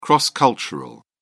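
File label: "cross cultural", British English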